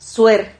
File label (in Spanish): "suer", Silabas